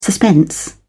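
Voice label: "suspense", British English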